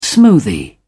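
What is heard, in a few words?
"smoothie", British English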